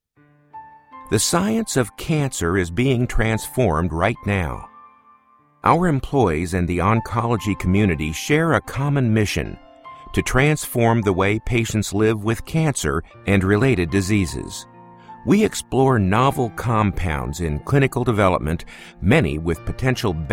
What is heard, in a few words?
Corporate Imaging